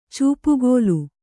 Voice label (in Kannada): "ಚೂಪುಗೋಲು", kannada